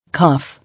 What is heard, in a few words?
"Cough", English